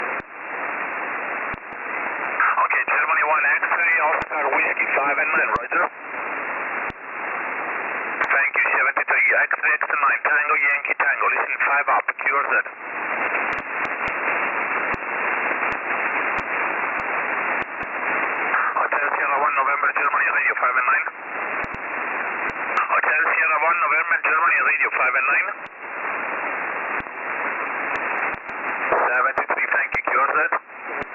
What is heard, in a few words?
17m ssb; macao